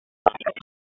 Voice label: Police Southeast District Tac; wake; p25

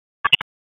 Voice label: Police North District Tac 1, p25; Raleigh; wake

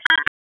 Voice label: Police Tac; Wake; p25

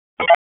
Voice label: FIRE OPS, p25; FIRE OPS 1; wake

